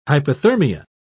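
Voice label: "hyperthermia", British English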